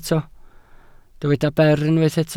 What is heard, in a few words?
"ber", Welsh